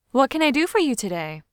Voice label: What Can I Do For You Today Female Friendly Professional